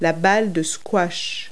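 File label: "squash ball", English words; Multi-Lingual Talking Picture Dictionary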